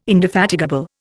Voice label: "indefatigable", Indian

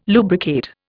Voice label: "lubricate", Indian

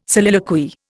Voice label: "soliloquy", Indian

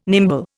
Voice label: "nimble", Indian

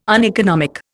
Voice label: "uneconomic", Indian

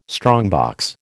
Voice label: "strongbox", American

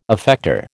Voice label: "effector", American

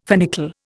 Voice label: "finical", Indian

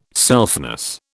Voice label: "selfness", British

